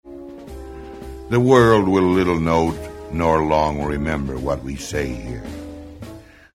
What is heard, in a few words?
narration; dirksen